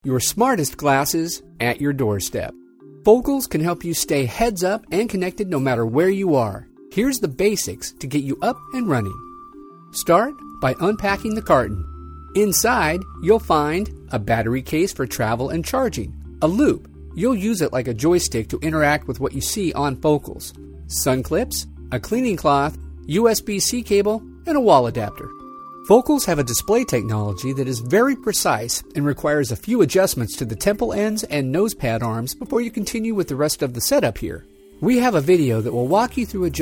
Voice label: ELearning